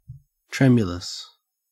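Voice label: "tremulous", Australian English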